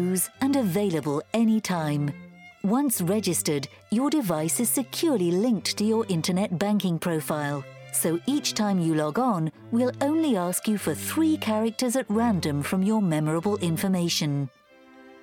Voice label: Corporate